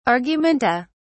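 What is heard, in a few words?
"argumenta", British English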